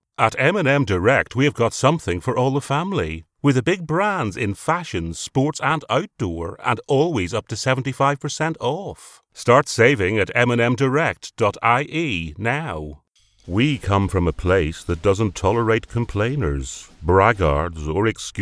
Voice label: Radio Commercials